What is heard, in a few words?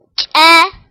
"ch", tigrinya, alphabet sounds